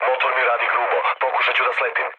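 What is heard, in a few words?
Serbian damaged engine mood low, speech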